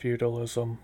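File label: "feudalism", US English; pronunciations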